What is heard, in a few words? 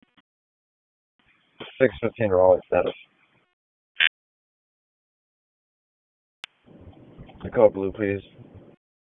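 Police Southwest District Dispatch, p25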